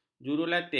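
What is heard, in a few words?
"jurulatih", Malay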